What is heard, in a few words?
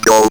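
ROBVOX, robot voice; E-Lab; X Static Goldmine 1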